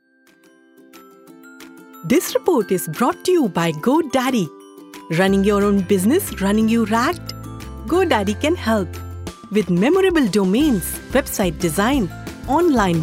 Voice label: English Commercial; Go Daddy